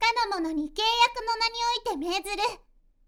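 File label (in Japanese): magi girl kanomononikeiyakunonani, Japanese; magi syozyo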